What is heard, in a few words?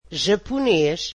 portuguese Japanese